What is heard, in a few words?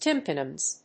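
"tympanums", English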